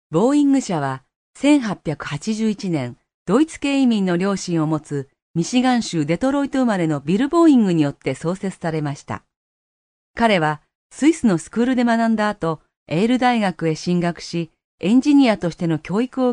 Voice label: female japanese voice over narrative

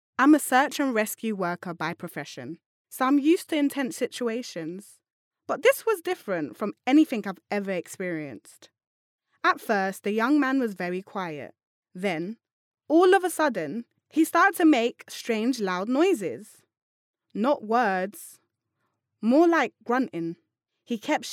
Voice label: female british voice over character; english british

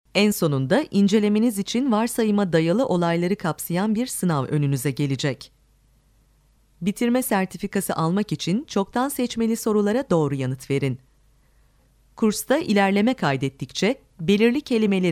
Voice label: female turkish voice over narrative

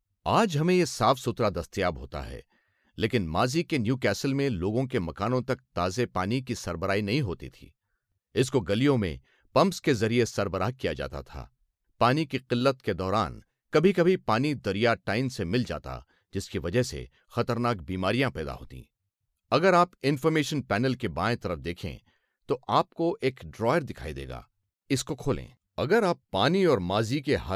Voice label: male urdu voice over talent narrative

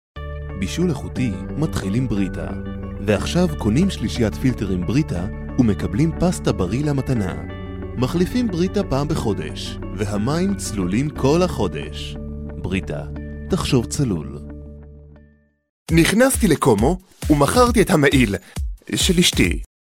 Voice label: male hebrew voice over sales

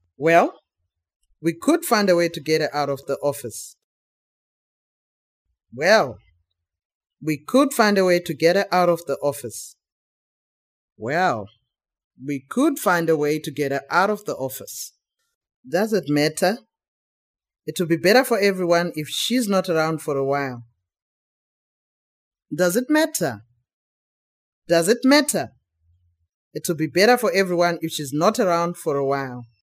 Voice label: female african voice over character, english

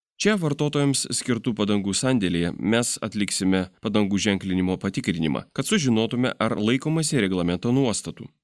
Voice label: male lithuanian voice over talent narrative

